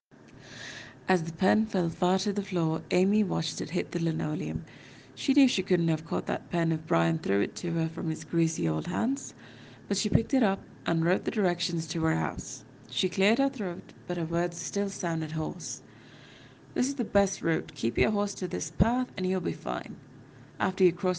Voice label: Reading